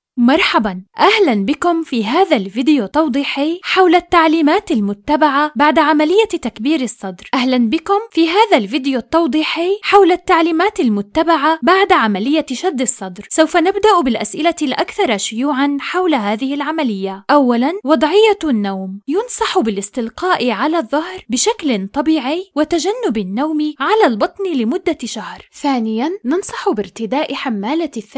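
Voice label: Arabic, female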